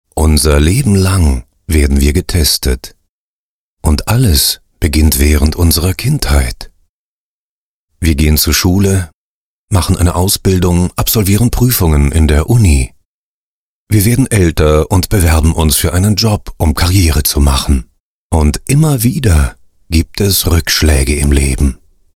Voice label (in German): Imagefilm wertig persoenlich; Plakos